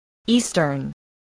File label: "eastern", English